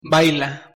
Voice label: "baila", pronunciation es

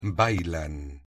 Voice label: "bailan", pronunciation es

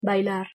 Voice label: "bailar", pronunciation es

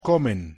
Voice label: "comen", pronunciation es

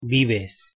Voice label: "vives", pronunciation es

